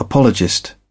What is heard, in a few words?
"apologist", British